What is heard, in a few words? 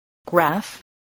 "graph", spelling